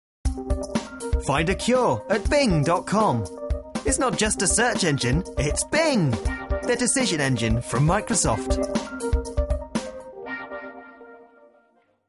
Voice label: friendly technology, voiceover